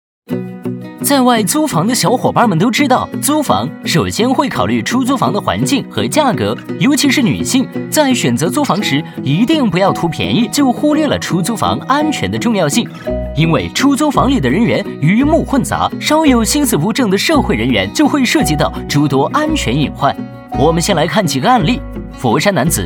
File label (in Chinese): 男, 普法, 轻松; 普法飞碟说-误闯出租屋